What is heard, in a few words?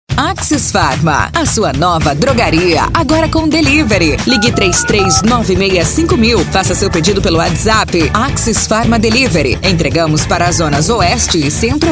spot; axes farma delivery